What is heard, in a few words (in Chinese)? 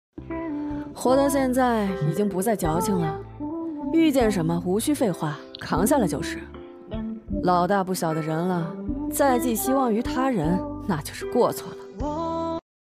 御姐洒脱声